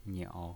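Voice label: "niao", pinyin voice, Mandarin Chinese, third tone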